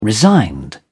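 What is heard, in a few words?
"resigned", British English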